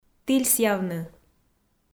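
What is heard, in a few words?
"тільсъявны", Komi, female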